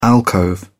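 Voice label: "alcove", British English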